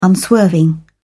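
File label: "unswerving", British English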